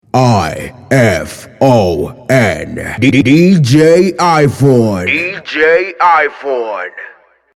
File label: male voice